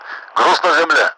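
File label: Russian speech, Mi-8MT; Messages cargo ground; DCS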